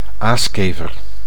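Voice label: "aaskever", Dutch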